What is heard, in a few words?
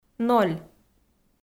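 "ноль", Komi-Zyrian, female; audio dict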